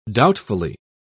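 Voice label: "doubtfully", British English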